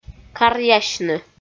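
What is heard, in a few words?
"каръясьны", Komi, female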